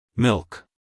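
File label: "milk", American English, male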